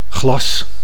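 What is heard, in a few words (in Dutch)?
"glas", Dutch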